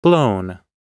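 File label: "blown", American English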